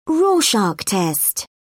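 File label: "rorschach test", British English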